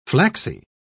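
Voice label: "flaxy", British English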